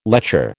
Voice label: "lecher", American